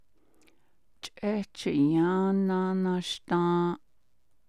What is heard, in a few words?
I ate watermelon again, Navajo